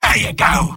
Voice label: sniper positive vocalization; tf2; mvm